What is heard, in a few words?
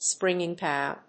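"springing cow", English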